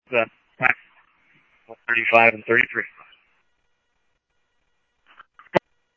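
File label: police radio dispatch, Scottsdale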